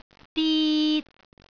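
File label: "dit3", Cantonese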